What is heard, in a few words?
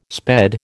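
"sped", American English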